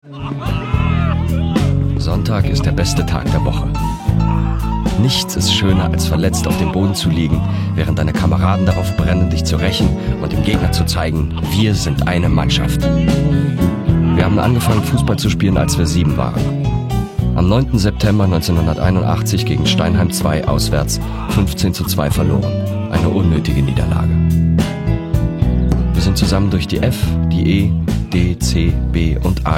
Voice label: Voice Over Film